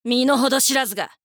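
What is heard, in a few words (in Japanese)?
「身の程知らずが」, 女の子 オラオラ系少女 戦闘ボイス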